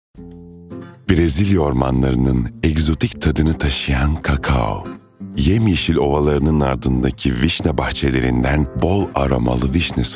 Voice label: sicak samimi

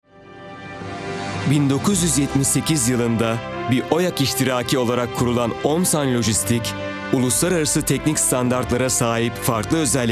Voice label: tanitim

